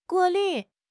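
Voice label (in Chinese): "过滤", Mandarin Chinese; HSK6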